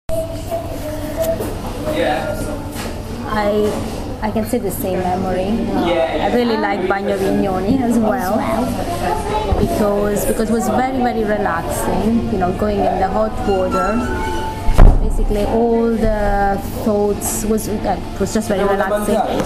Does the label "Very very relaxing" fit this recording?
Yes